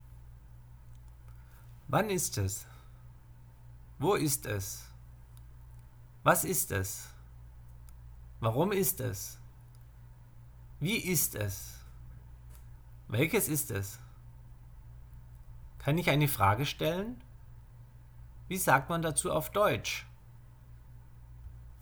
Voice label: German Lesson Asking questions